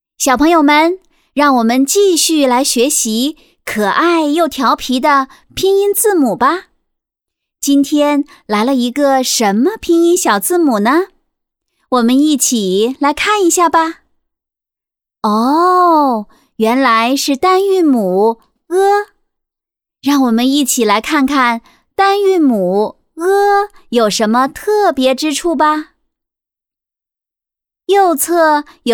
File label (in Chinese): 拼音课